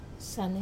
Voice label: "sane", stomach, wamesa